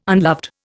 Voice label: "unloved", Indian